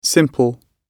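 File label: "simple", British English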